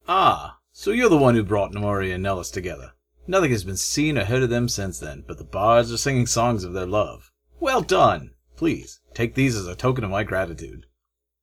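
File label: breton read through, dark elf voice auditions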